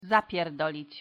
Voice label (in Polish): wymowa po polski "zapierdolic"